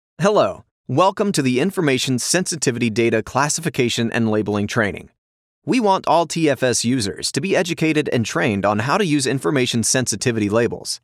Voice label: Information Sensitivity Training

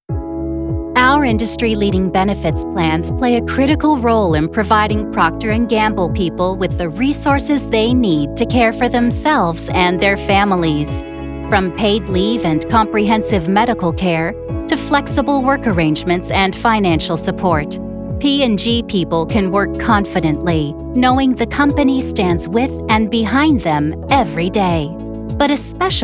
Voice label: E LEARNING

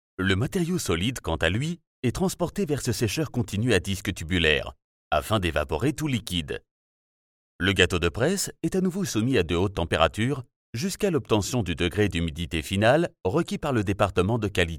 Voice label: CORPORATE

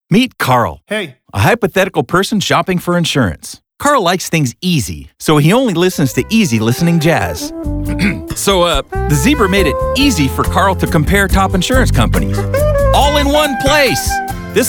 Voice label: vo commercial

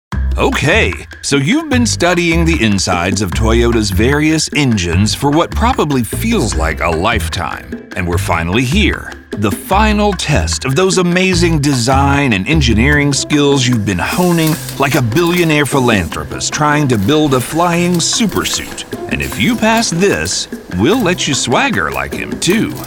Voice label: E-LEARNING

